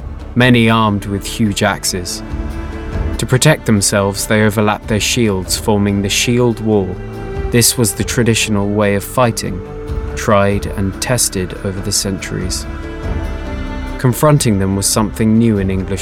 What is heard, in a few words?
Narration The Battle of Hastings